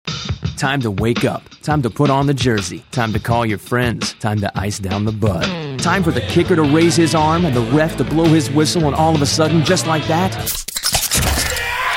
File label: Commercial